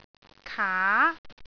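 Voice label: "kaa2", Cantonese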